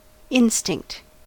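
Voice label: "instinct", American English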